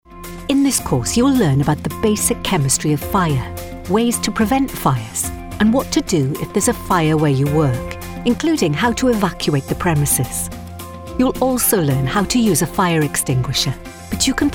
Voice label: Welsh Female, elearning voices